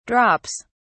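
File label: "drops", British English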